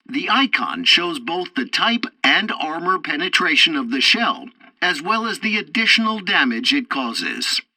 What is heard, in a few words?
tutorial tank arcade fourth target ammo, english; War Thunder